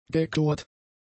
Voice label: "gechlort", German; adjectives

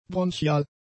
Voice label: "bronchial", German; adjectives

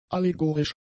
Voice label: "allegorisch", German; adjectives

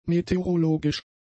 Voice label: "meteorologisch", German; adjectives

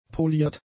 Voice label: "poliert", German; adjectives

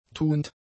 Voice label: "tuend", German; adjectives

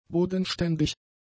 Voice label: "bodenständig", German; adjectives

